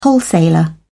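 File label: "wholesaler", British English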